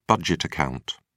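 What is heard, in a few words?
"budget account", English, British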